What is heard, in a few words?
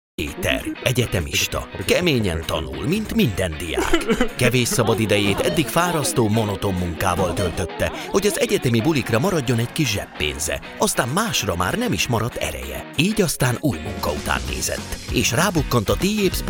male hungarian voice over upbeat